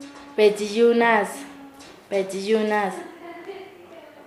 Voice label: "ser agredido", Nasa Yuwe; paez

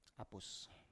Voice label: "apus" Biak

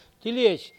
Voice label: "kiliej", Mokilese

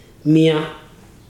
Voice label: "hit", Ibibio

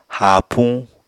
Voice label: "haapuñ", Apatani

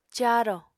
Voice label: "caarò", Apatani

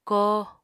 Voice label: "kóo", Apatani

